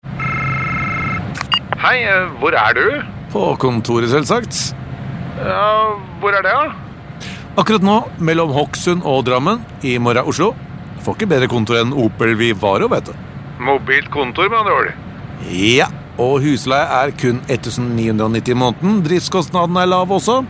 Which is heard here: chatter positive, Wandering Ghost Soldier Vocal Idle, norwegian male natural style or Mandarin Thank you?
norwegian male natural style